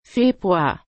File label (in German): "Februar", German